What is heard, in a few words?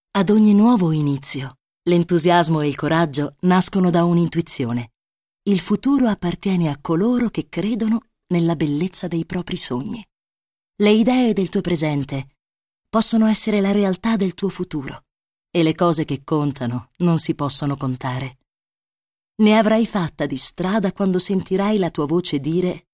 Spot Italian, ITALIAN FEMALE; commercial